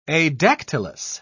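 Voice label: "adactylous", English, British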